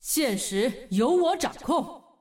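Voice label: Traditional Chinese; 守望先锋; 秩序之光; 终极技能; 主动/敌方释放语音; 繁体中文; 現實，由我掌控！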